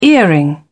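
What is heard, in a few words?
"earring", British English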